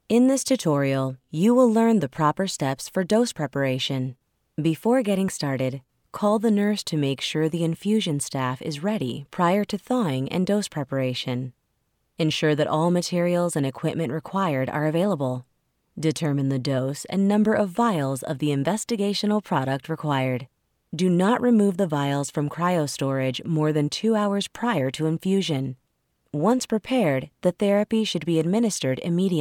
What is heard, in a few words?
Medical Healthcare Instructional Narration Female English Bilingual